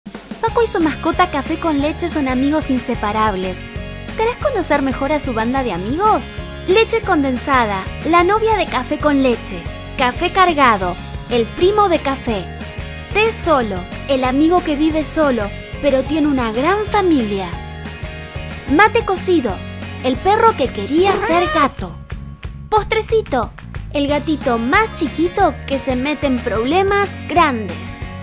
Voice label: Booktrailer; Facu y Cafe con leche 8 Chanti

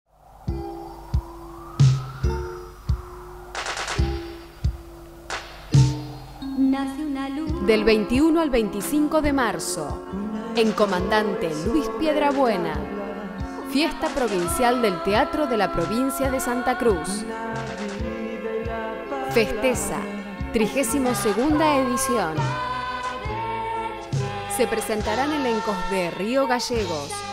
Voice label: Locutora Publicidad

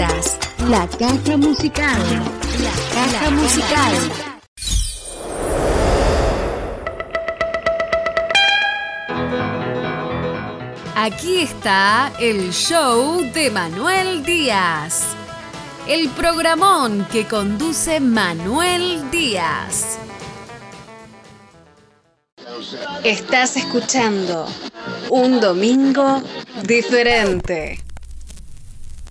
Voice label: Locutora Artisticas Radio; Pisadores Radio

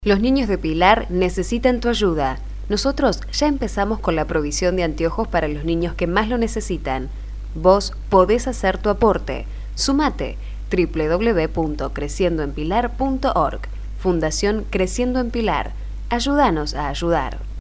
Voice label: Publicidad; Fundacion Pilar

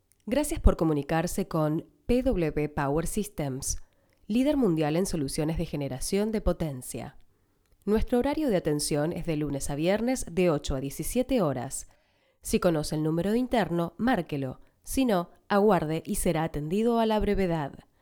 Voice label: Espanol; PW Power Systems

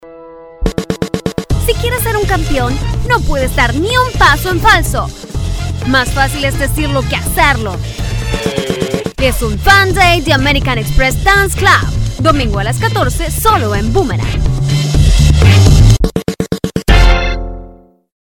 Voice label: Demo Publicidad; Boomerang

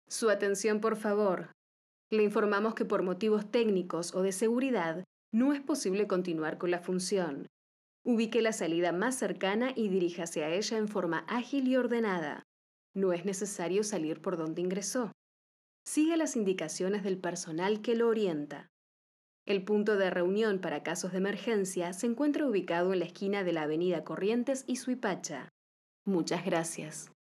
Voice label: ANUNCIO

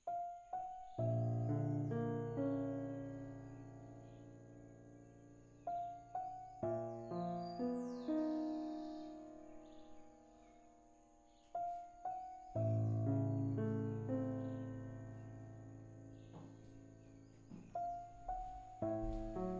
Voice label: Voto de Silencio Fragmento Locutora

